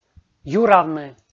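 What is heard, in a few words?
"юравны", Komi, male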